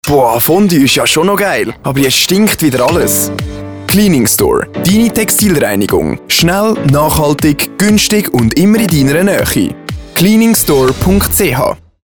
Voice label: radiospot